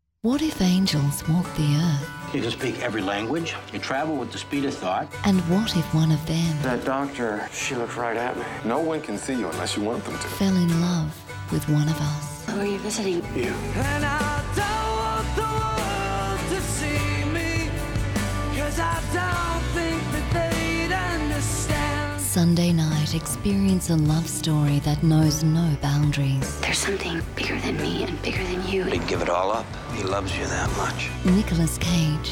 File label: promos